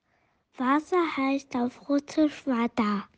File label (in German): Russisch Wasser